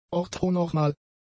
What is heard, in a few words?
"orthonormal", German; adjectives